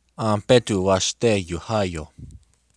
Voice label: have a nice day, lakota

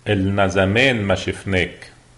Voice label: long time no see, lebanese arabic

